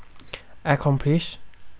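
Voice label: "accomplish", Thai, male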